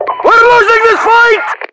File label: losing, voiceovers english